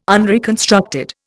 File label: "unreconstructed", Indian